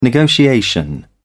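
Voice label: "negotiation", British English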